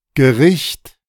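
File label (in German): "Gericht", German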